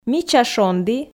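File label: "мича шонді", Komi, female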